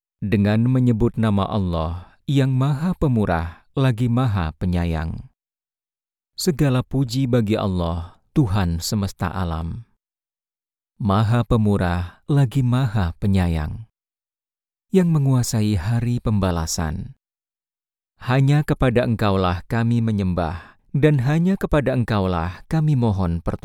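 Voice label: Indonesian, voice over dubbing, male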